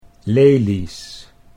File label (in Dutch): "lelies", Dutch